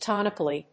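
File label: "tonically", English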